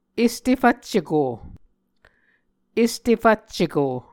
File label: "este fvcceko", Muscogee; Mvskoke Words with Repeated Consonants; A Dictionary of Creek/Muskogee; SIL International